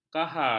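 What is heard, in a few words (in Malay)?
"kahar", Malay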